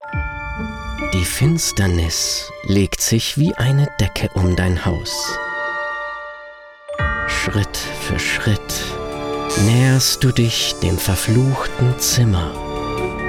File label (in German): Werbung; Duftikuss